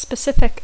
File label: "specific", English